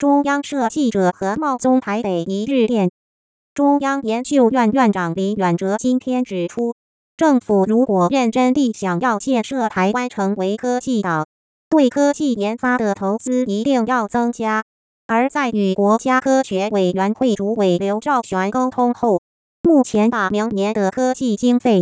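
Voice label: Synthetic Speech, News reporting, Mandarin, Pitch: 120Hz; Using linear time mapping and HNM; VocalTrackLen: / (100/85)%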